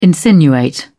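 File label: "insinuate", British English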